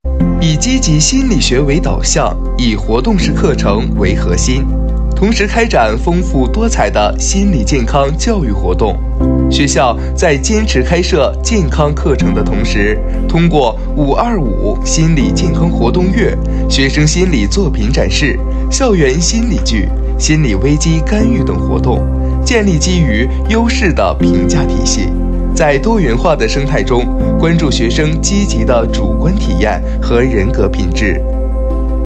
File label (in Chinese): 学校宣传音